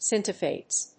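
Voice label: "Synthetase", English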